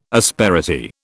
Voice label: "asperity", British